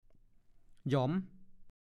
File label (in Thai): "หยอม", ภาษาโคราช